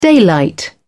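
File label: "daylight", English, British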